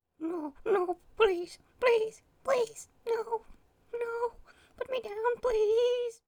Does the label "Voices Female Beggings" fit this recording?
Yes